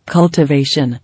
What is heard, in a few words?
"Cultivation", English